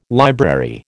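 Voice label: "library", American English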